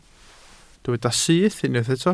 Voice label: "syth", Welsh

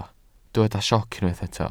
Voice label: "sioc", Welsh

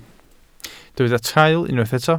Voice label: "traul", Welsh

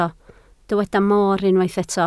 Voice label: "moor", Welsh

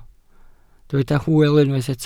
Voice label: "hwyl", Welsh

